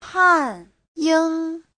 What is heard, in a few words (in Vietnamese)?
"汉英", Hán ngữ; Hán - Anh